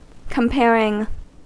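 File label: "comparing", US English; pronunciations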